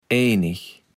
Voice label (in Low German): "enig", kaevels platt